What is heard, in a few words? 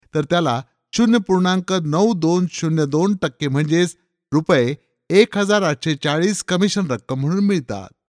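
Marathi, male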